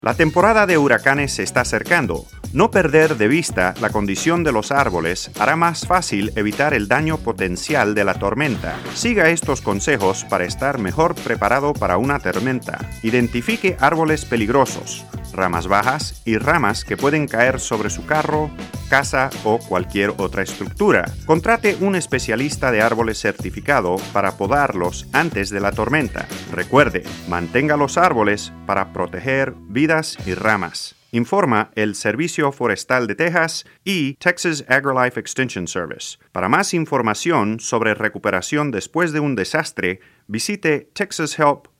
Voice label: Spanish